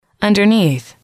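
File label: "underneath", English, female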